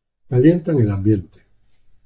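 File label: "ambiente", Spanish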